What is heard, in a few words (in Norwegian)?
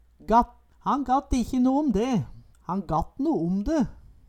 gatt, Numedalsmål